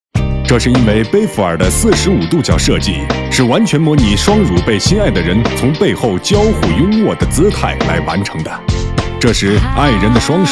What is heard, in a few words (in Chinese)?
男国 广告 电购; 胸罩产品